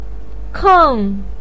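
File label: "keng" fourth tone, Mandarin Chinese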